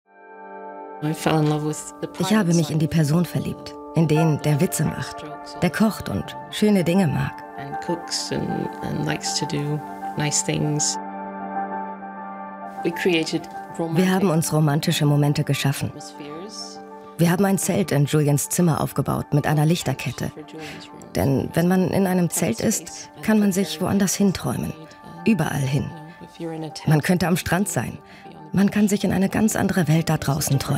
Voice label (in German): Dokumentation; Wikileaks; Stella Morris; LOFT VOICES